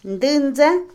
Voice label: tronco, Otomí, mujer; INALI

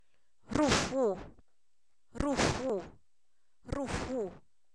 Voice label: tos, Zapoteco, san miguel albarradas; enfermedades

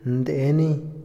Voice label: "deportes", Otomí, hombre; INALI

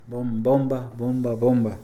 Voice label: "bomba", Mixe, hombre; Moderno

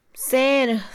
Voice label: Mixe, Mujer; vela; Casa; INALI

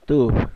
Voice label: "uno", Zapoteco, Magdalena Ocotlan; Números; INALI; Haspelmath

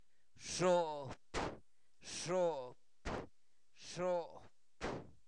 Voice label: Zapoteco Albarradas, santo domingo albarradas; INALI; numeros; seis

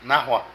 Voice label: Allá, Zapoteco de Petapa; Vocabulary

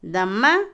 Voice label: "retirarse, irse", Otomí, mujer; Vocabulary; INALI

